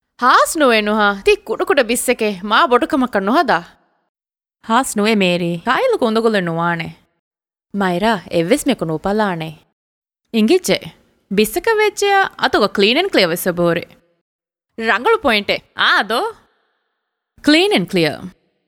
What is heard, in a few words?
DHIVEHI FEMALE